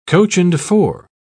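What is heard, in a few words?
"coach-and-four", English, British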